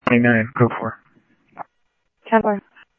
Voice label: Police, scanner; H-Scottsdale; ScottsdalePolice